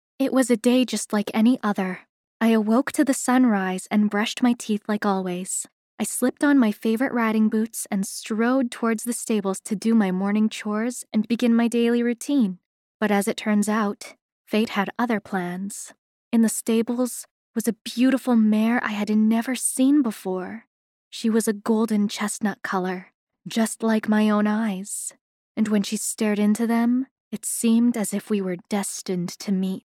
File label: female canadian voice over narrative